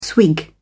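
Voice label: "swig", British English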